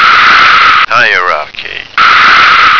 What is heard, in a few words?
"hierarchy", American